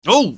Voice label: Stair Bounce Pain; portal2; wheatley